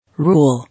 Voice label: "Rule", English